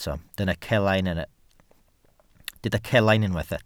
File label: "celain", Welsh